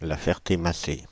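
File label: "La Ferté-Macé", French, Paris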